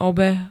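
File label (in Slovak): "obeh", Slovak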